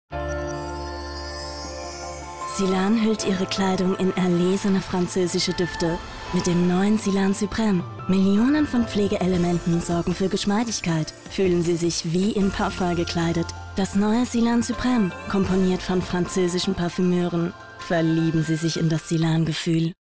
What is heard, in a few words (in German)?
Frau OFF